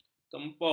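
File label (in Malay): "kempun", Malay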